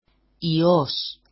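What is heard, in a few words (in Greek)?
"ios", Greek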